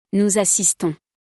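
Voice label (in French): "nous assistons", French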